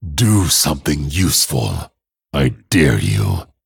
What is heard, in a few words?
Male Dragon TRIGGER GATHER YOUR PARTY